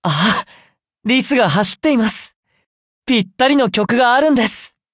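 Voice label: loading, Japanese; Cookie Run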